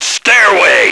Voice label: stairway, radio, male

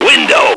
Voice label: window, radio, male

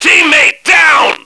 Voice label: teamdown, radio, male

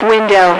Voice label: "window", radio female

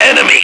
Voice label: enemy, radio, male

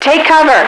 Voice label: "take cover", radio, female

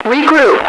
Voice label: regroup, radio, female

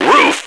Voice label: roof, radio, male

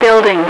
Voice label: building, radio, female

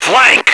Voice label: flank, radio, male

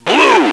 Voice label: blue, radio, male

